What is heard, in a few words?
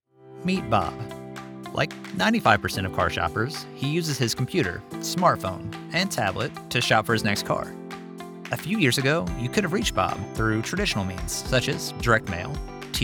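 Automotive Website, Professional Male Voice Actor, American male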